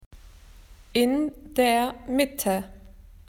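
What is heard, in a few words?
"middle", Australian English; PictureDictionary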